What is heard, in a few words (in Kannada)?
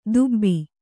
"ದುಬ್ಬಿ", Kannada